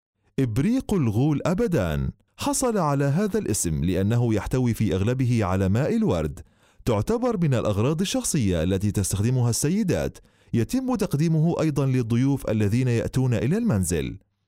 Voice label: arabic